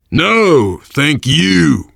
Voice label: you are welcome, voice, biker; Left 4 Dead 2; survivor